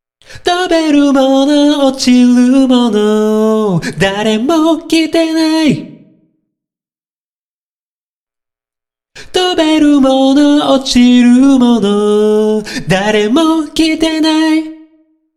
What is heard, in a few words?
voice commentary